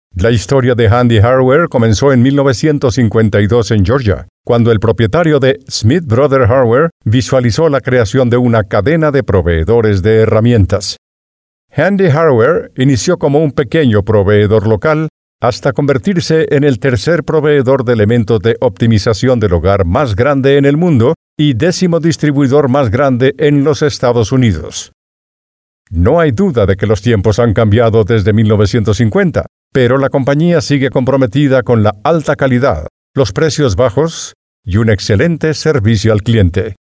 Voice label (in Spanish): spanish corporate narration